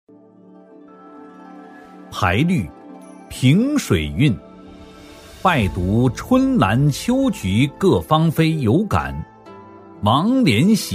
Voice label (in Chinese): 男 朗诵配音 军旅歌颂